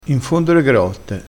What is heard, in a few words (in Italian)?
"in fondo le grotte", crespinese